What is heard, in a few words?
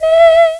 Solfege, Claire's Voice; Opcode Systems